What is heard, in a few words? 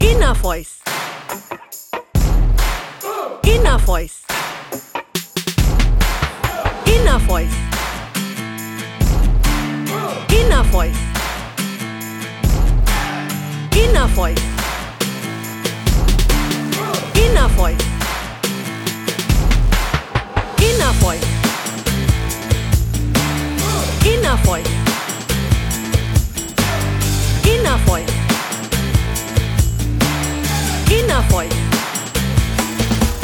strong emotion